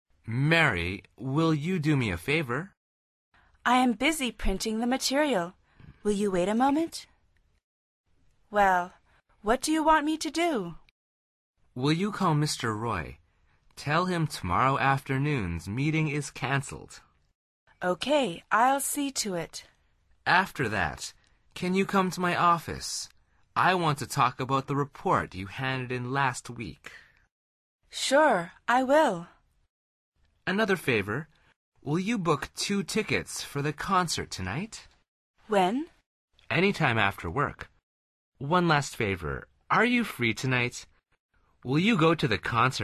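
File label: dialog, Conversación en Ingles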